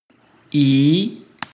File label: latin i